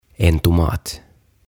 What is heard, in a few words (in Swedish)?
"En Tomat" Tomaatti